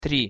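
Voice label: "tri", Russian pronunciation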